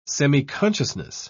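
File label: "semiconsciousness", English, British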